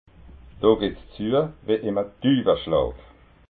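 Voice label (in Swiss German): "duwaschlag", Alsatian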